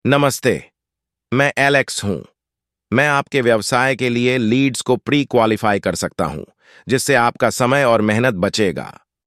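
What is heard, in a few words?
Hindi, male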